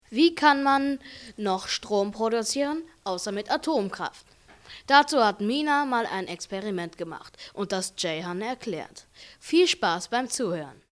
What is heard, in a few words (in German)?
vorlese sonnenenergie